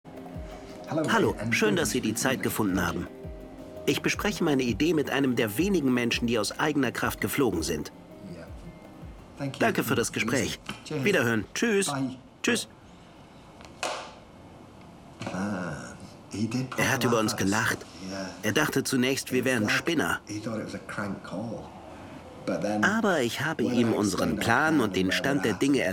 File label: voiceover; bang goes the theory